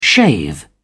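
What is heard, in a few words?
"shave", British English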